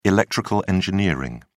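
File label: "electrical engineering", British English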